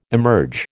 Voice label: "emerge", American

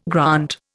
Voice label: "grant", Indian

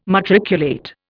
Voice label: "matriculate", Indian

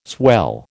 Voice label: "swell", American

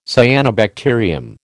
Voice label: "cyanobacterium", American

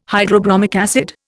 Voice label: "hydrobromic acid", Indian

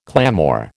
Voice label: "clamour", American

